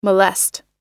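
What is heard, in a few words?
"molest", American English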